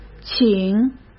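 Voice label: "请", Mandarin Chinese; hsk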